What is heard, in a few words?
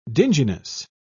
"dinginess", pronounce